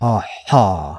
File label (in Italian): Fricativa epiglottale sorda; IPA